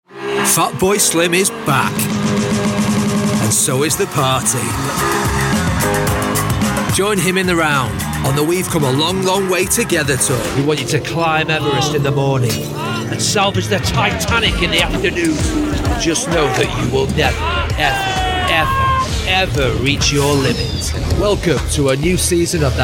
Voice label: commercial, voiceover